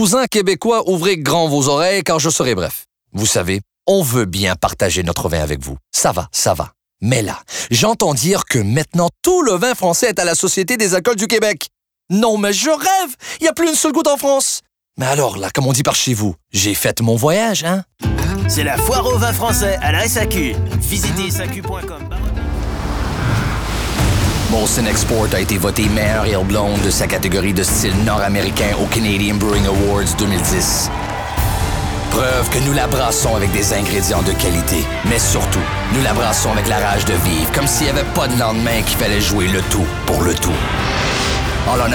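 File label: commercial male, French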